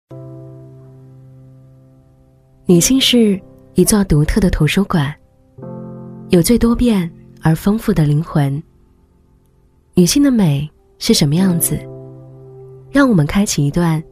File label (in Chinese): 女, 走心, 女性是最独特的图书馆 知性柔和; 女45-走心【女性是最独特的图书馆 知性柔和】